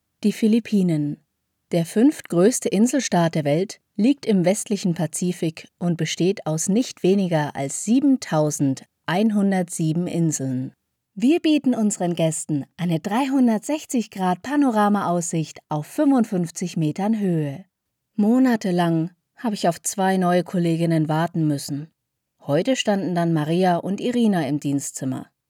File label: Documentary